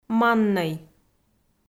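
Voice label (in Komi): "маннӧй", Komi-Zyrian, female; kpv audio dict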